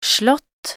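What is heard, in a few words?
"slott", Norwegian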